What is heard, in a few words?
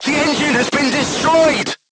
voice message engine destroyed, crew tanks vietnamese